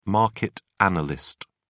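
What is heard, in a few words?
"market analyst", English, British English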